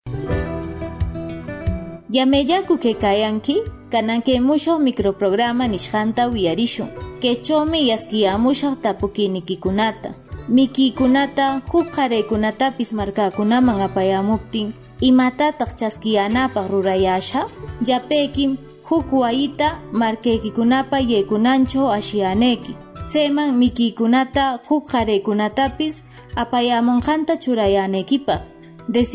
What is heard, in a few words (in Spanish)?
quechua ancash 1 voz, spot de radio; entrega de bienes y alimentos; medidas de prevencion